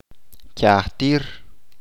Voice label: "cuatro veces", Chalchiteko